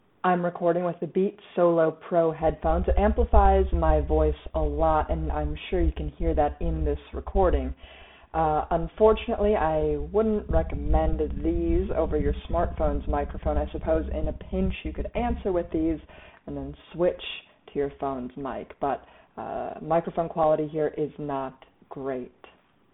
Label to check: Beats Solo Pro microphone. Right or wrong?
Right